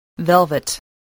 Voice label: "velvet", English